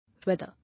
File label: "Weather", English